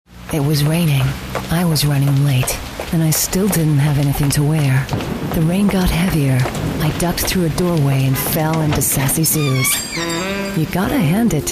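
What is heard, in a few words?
English Australian commercial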